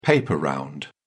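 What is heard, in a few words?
"paper round", British English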